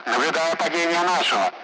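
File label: Speech Sound Russian Common Allied Flight Messages loud; member down; DCS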